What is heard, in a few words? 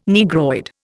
"Negroid", Indian